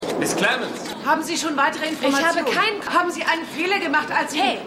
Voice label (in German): Reporter Reporterin